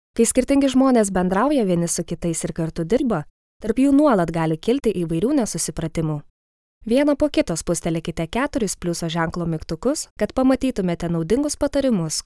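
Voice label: Lithuanian, female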